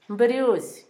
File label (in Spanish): garza, Zapoteco, Asunción Ocotlan; Animales; INALI; Haspelmath